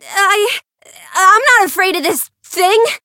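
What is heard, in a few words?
encounter fear; suzette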